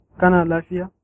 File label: how are you, hausa